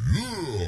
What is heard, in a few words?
"yeah", goofy; ooh ahh